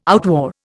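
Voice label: "outwore", Indian English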